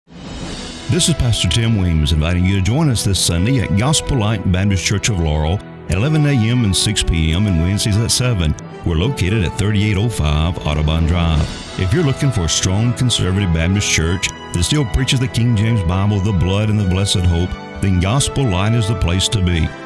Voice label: Radio Ad; Gospel Light Baptist Church